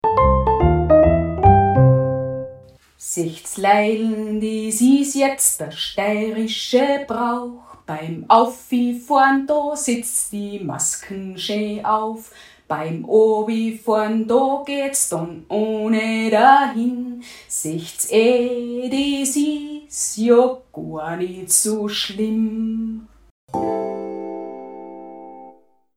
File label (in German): Liftansagen; Planneralm